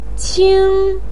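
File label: "qing", Chinese pinyin, first tone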